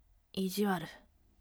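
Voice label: voice ijiwaru firm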